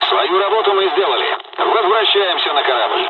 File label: dialog, dialogs russian; War Thunder